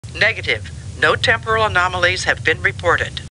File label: Star Trek Computer Voice; StarTrekLCAR